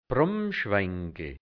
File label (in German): "Brummschwe(i)ngl", Burgenland Dialekt hianzisch; Brunnenschwengel bei Ziehbrunnen